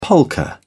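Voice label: "polka", British English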